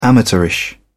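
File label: "amateurish", English, British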